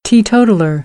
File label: "teetotaller", American, English; oʊ - əʊ